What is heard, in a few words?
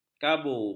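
"kabu", Malay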